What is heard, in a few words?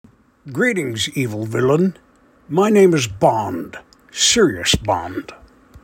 evil villain audio